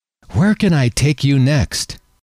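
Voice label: "where can I take you next", male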